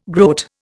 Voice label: "groat", Indian

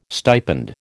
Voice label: "stipend", American

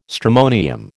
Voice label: "stramonium", American

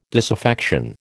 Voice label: "disaffection", American

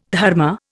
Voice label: "dharma", Indian

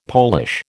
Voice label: "Polish", American

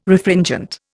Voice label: "Refringent", Indian

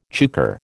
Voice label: "chukar", American

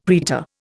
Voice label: "praetor", Indian; Dictionary

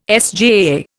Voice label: "SJAA", Indian

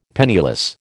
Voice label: "penniless", American

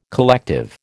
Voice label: "collective", American

